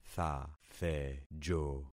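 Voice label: "thathejo", concatenated syllables; Affixing experiment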